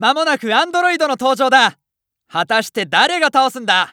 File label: Announce New Boss Prep, JPN; Eternal Return